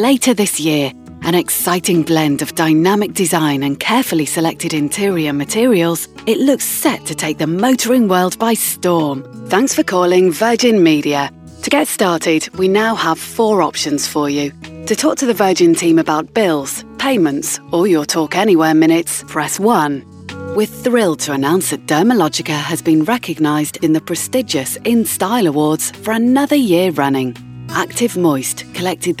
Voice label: On Hold; Voice-over demos; on hold voices